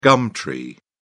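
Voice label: "gum tree", British English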